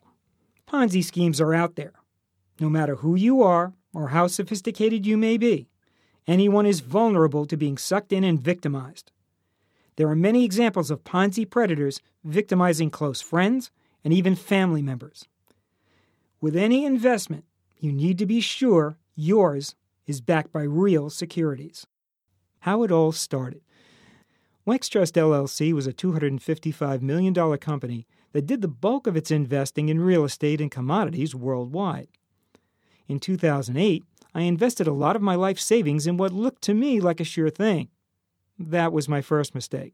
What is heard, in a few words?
Audiobook Chapter Sample; Fraud; an eye-opening investment scam report for consumers audiobook